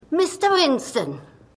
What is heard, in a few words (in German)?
Richterin, Stimmproben; Shameless